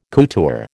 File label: "couture", American